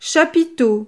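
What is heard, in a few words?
"chapiteau", French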